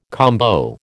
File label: "combo", American